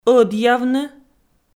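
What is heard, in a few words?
"ӧдъявны", Komi, female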